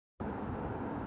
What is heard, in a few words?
voice message